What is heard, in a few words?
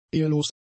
"ehelos", german; adjectives